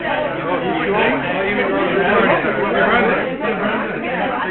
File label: chat, jp